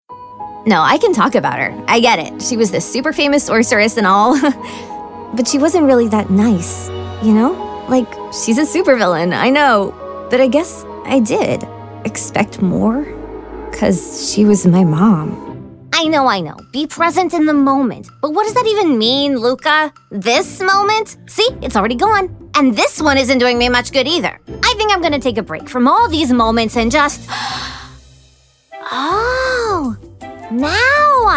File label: vo character; global voice acting academy